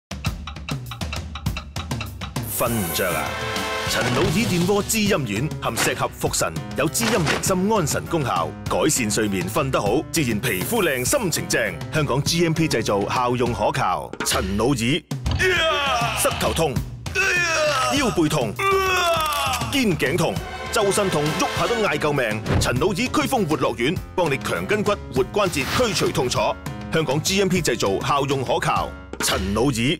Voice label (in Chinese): 粵語男聲; 陳老二滋陰丸．活絡丸